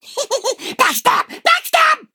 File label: Male Imp Attack